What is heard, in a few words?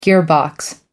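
"gearbox", English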